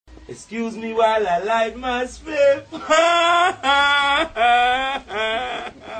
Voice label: Jamaican Tour Guide

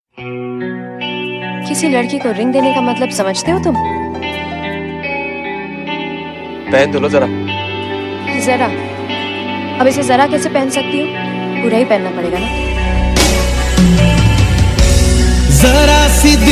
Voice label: Dialog; Jannat

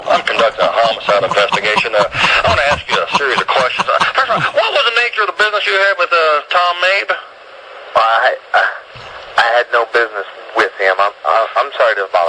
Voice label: Prank Call

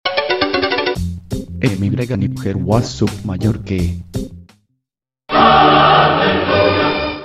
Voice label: Spanish Man

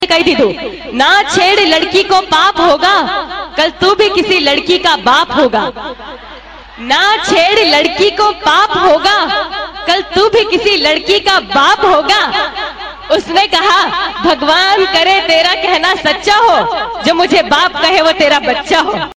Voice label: Girl Shayri

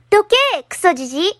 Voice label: Japanese

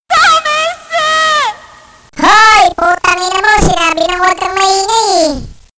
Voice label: Child Robot

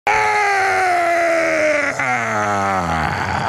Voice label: yelling; Alex Jones

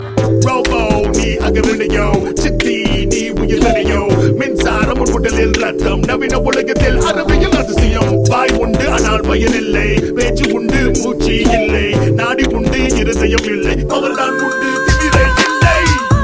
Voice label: Robo Dialogue

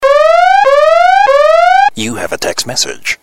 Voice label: Sms Male; Avast

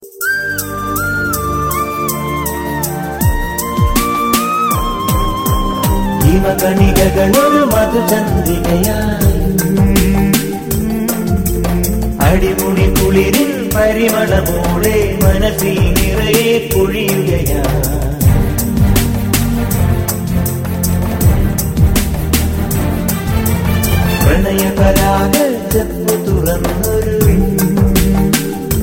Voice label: Airtel Male Voice

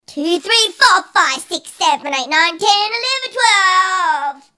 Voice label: 6yr Old Counting

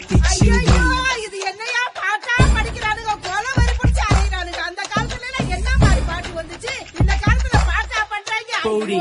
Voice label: Aiyoo Yoo

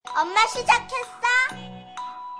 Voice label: Korean Girl Voice

